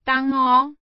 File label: "茼蒿", Hbl